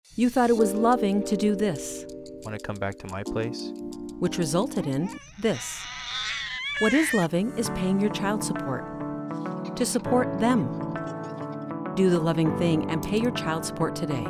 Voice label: commercial Radio ENG; modern child support campaign